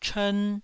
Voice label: "chern1", taiwanese